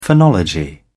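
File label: "phonology", British English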